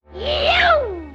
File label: "yahew", voice; ooh ahh